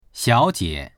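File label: "xiao3jie", Mandarin Chinese; 小姐; 未婚女性への呼びかけ、敬称（英語のMiss～）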